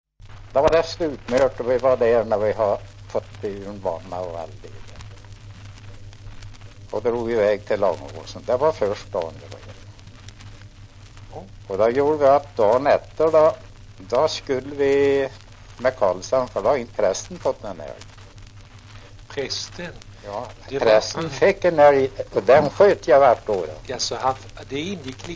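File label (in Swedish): Sunnemo Värmland, dialekter; svenska sagor; Folkminnen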